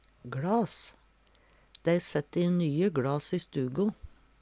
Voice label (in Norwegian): "gLas", Numedalsmål; Substantiv inkjekjønn; Hushald, mat, drikke